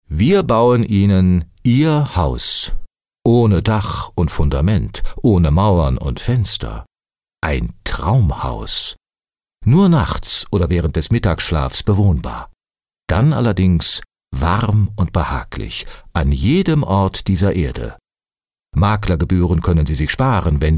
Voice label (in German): Werbung Neutral